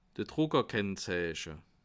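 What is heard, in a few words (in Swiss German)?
"druckerkennzeiche", Bas Rhin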